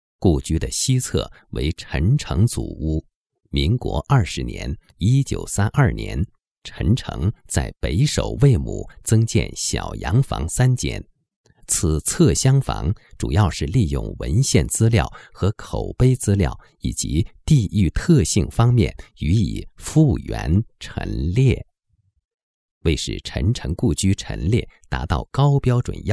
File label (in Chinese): 男 故居; 陈诚故居样